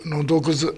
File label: "tonoguzu", minamiyamagata